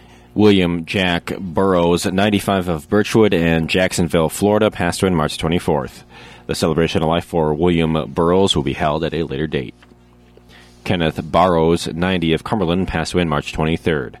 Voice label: Funeral Announcements